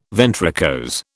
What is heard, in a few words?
"ventricose", British